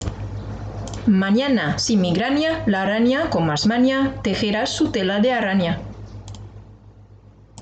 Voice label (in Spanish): trabalenguas la arana